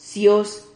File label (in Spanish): "cios", Silabas